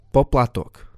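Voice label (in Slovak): "poplatok", Slovak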